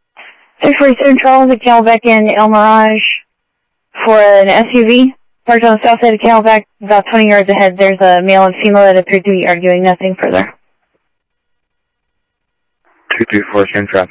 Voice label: Sheriff-WestCnty, scanner audio